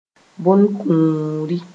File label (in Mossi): "bõn-kũuri", moore